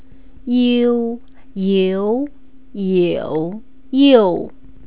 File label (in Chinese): "you", Chinese pronunciation